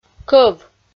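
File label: "кӧв", Komi-Zyrian, female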